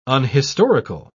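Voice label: "unhistorical", British English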